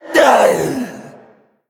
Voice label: Female Elemental Death